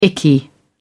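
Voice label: "icky", British English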